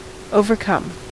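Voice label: "overcome", US English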